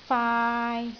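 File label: "faai3", Cantonese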